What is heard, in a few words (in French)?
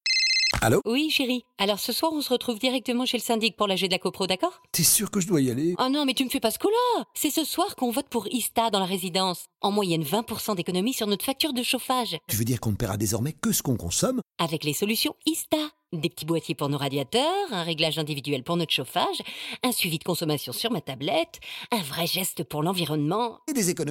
pub comedie; ista